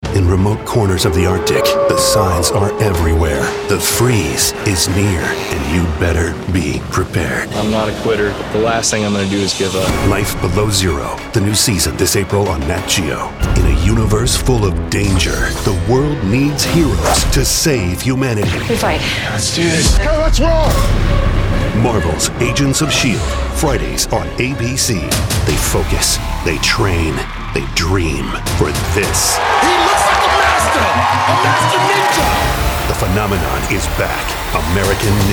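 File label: English US, General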